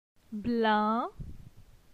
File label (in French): "Blin", phonetique; la syllabe in